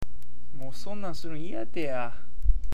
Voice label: "teya", awaji ben

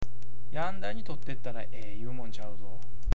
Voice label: "yanda", awaji-ben

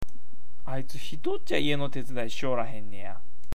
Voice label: "hitocha", awaji ben

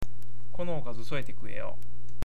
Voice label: "soeru", awaji-ben

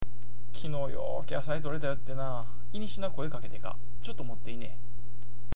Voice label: "shina", awaji-ben

